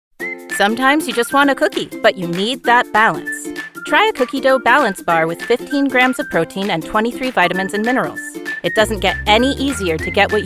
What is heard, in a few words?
commercial